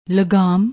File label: "lagaam", Punjabi